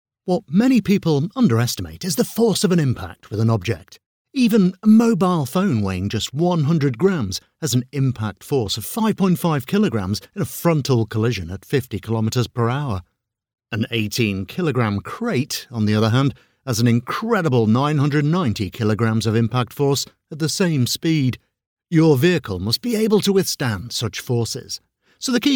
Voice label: voiceover narrative training video